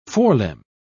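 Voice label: "forelimb", British English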